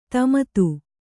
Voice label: "ದಮಥ", Kannada

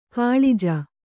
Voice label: "ಕುಲಜ", Kannada

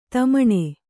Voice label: "ದಮ್ಮಣಿ", kannada

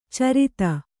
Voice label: "ಛುರಿತ", Kannada

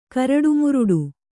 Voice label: "ಕರಟುಮುರಟು", kannada

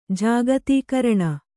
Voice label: "ಜಾಗತೀಕರಣ", kannada

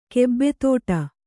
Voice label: "ಗೂಬೆತಡೆ", kannada

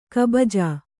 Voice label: "ಕುಬುಜ", Kannada

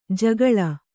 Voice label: "ಜಗುಲಿ", Kannada

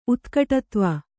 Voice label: "ಉತ್ಕಟತ್ವ", kannada

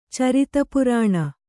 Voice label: "ಚರಿತಪುರಾಣ", kannada

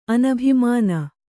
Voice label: "ಅನಭಿಮಾನ", Kannada

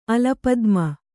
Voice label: "ಅಲಪದ್ಮ", Kannada

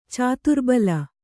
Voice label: "ಚದುರುಬಿಲ್ಲೆ", Kannada

